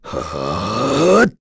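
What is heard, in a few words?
Vox Casting, Korean; Bernheim; kingsraid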